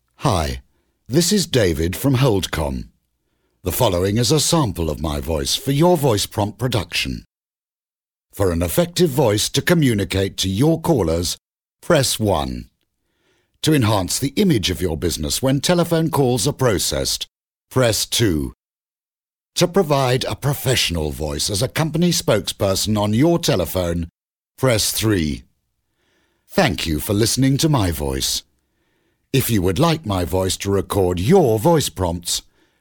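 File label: English British, male, IVR; voice demos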